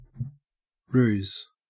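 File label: "ruse", Australian English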